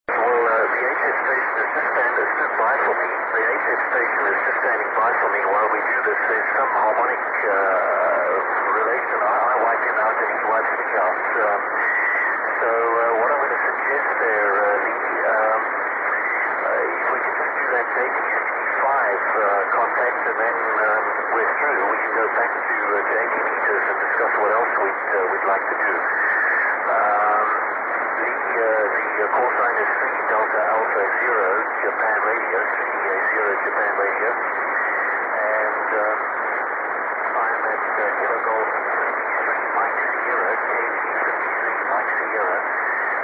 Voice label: 2m SSB; 2m SSB QSO Swaziland 393Km